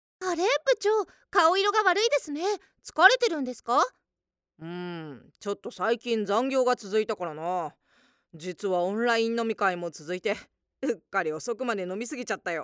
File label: Radio CM